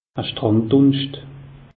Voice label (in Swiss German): "strand dunscht", alsace; meteo